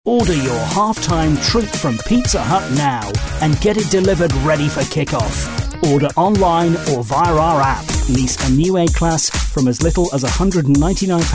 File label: commercials